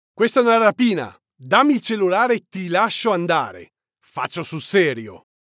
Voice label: Ringtone, italian